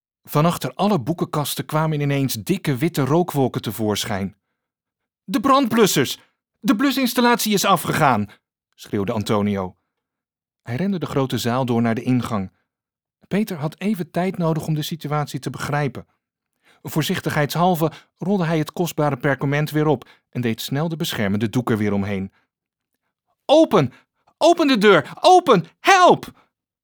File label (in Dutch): Luisterboek thriller